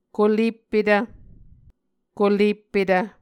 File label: "koleppetv", Muscogee